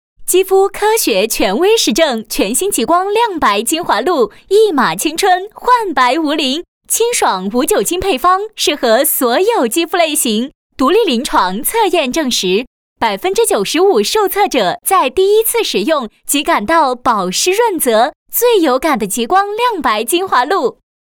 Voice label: 女 广告 活力肌肤

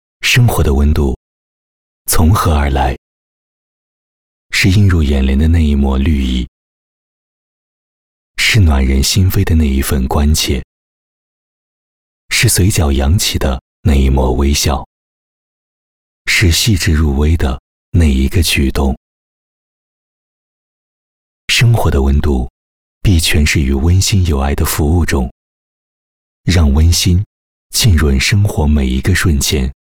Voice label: 男 温情 物业形象片

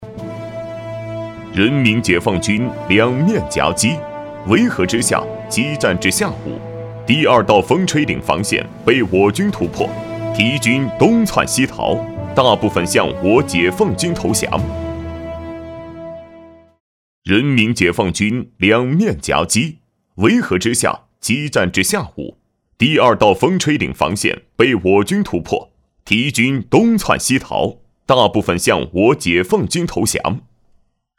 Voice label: 男 专题解说 严肃正气; 人民解放军

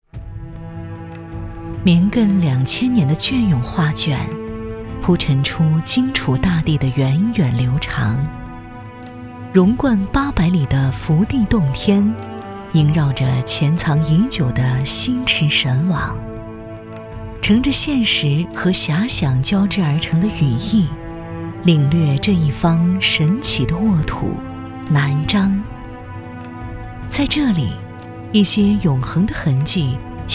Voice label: 女 专题 抒情 深沉; 南漳

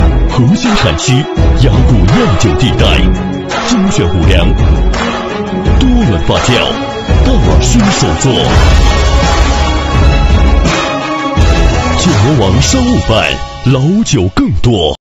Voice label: 男 电视广告 品质浑厚; 琴台酒肆

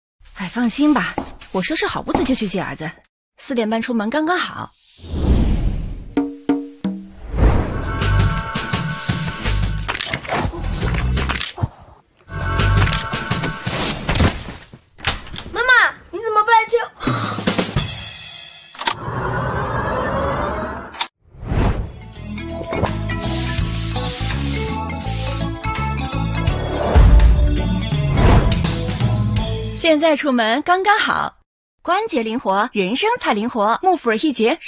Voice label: 女 广告 自然 素人角色; 益节; 天猫

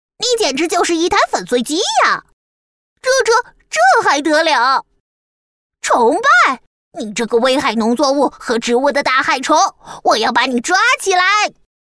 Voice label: 女 男童; 怪怪的

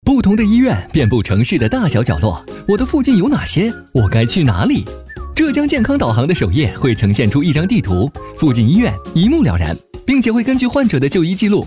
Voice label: 男 MG 医院

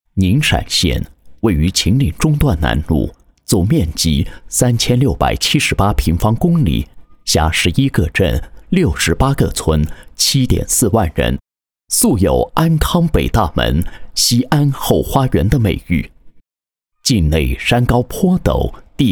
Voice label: 专题片; 宁陕县地质灾害应急预案

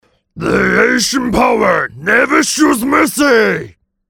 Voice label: 英男 角色; 蚩尤

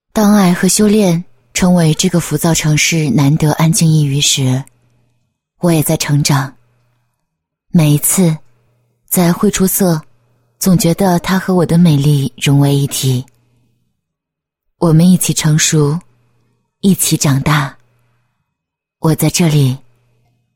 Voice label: 女, 独白; 荟出色

